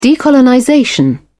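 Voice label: "decolonization", British English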